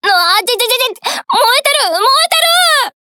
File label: Speech Alarms Fire Alarm; Cleveland; Azur Lane